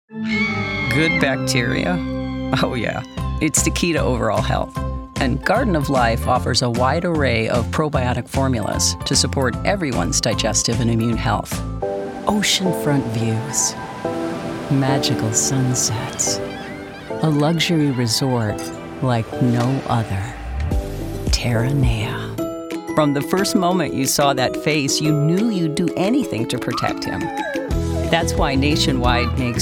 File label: Commercial